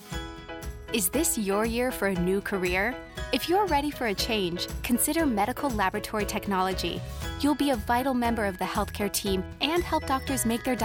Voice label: Upbeat Friendly; Hondros College of Nursing